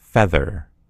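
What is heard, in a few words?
"feather", American English; gcompris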